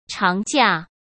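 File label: "长假", Mandarin Chinese; hsk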